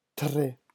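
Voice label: "tres", French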